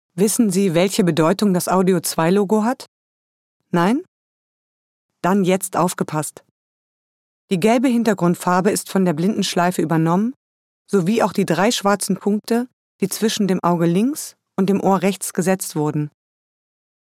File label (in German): Hochdeutsch; sprechproben